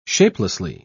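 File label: "shapelessly", British English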